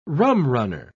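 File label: "rumrunner", English, British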